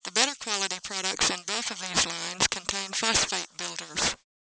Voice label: female speech error